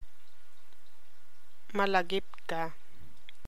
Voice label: Ilocano